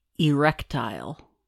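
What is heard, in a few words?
"erectile", US English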